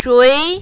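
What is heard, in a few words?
"zhui" second tone, mandarin, female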